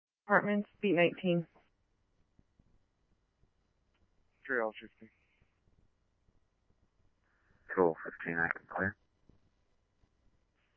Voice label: scanner, Scottsdale Police